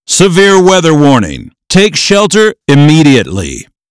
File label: Severe Weather Warning